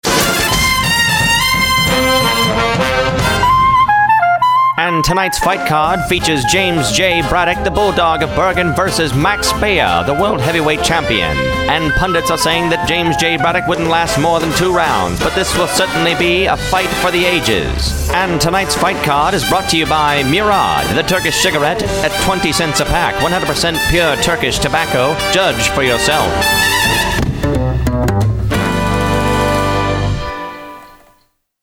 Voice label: Vintage Commercial